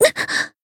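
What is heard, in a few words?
Voice damaged, Japanese; pizzica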